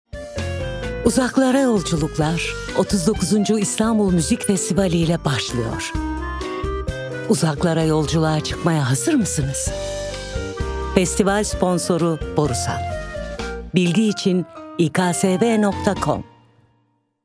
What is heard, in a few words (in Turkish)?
CİDDİ; Sesizi Seslendirme Ajansı